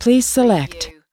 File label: US female please select; lynne publishing